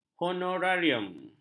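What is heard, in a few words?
"honorarium", Malay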